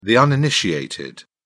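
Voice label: "uninitiated", British English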